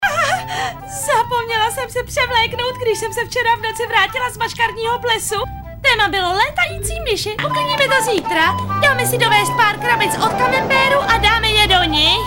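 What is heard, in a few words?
female Czech drama mix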